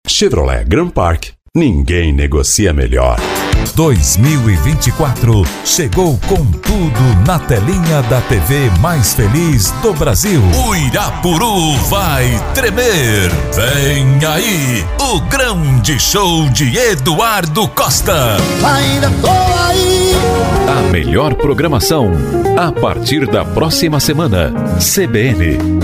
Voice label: locutor

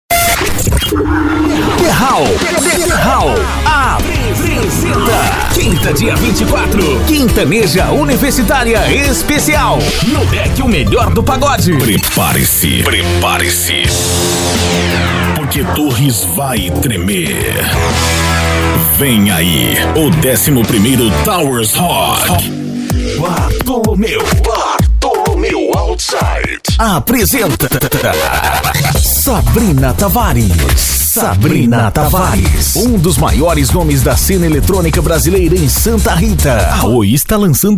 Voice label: locutor, Publicidade e Propaganda; VOXMIDIA

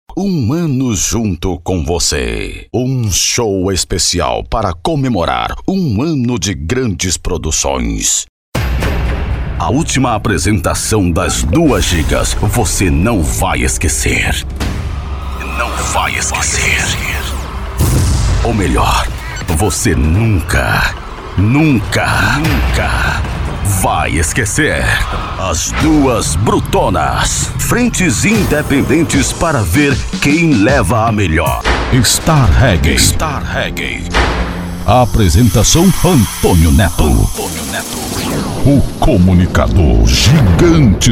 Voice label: locutor

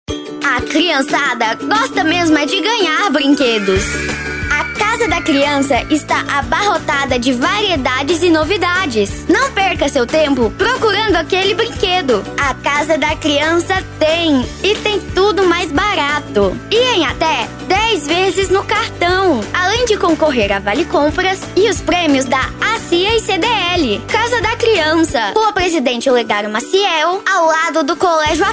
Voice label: locutora infantil; Puffy Publicidade e Propaganda

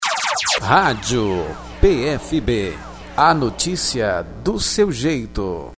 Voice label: radio

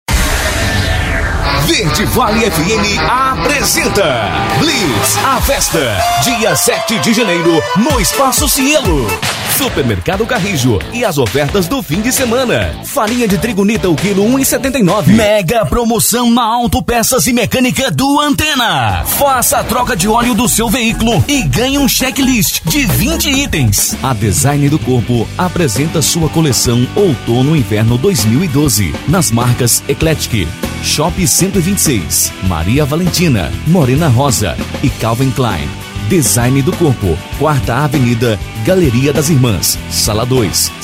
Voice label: locutor

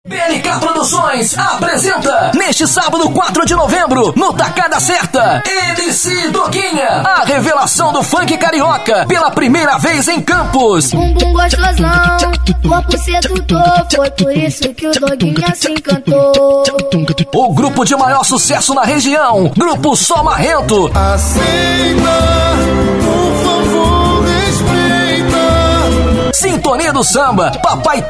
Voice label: locutor chamadas offs spot propaganda comerciais

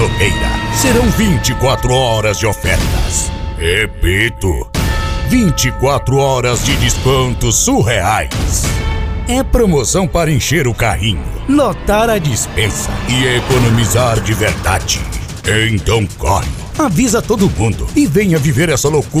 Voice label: voz pra black friday voz impacto

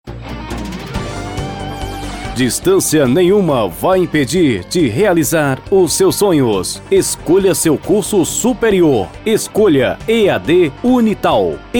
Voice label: spot

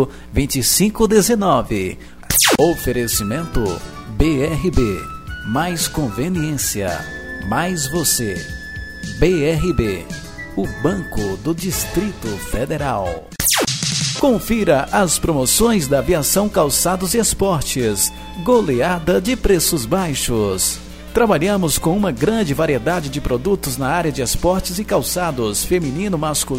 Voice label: spot supermercado

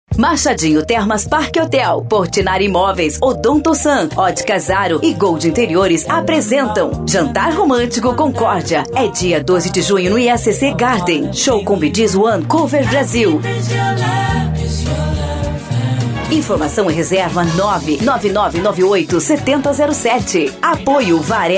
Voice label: locutora

